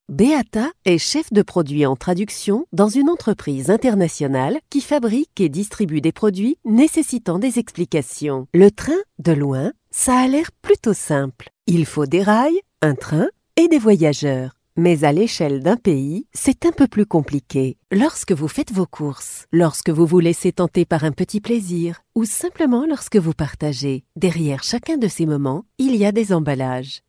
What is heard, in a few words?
French voice over corporate energetic